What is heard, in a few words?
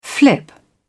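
"flip", British English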